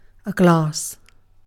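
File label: "glass", British English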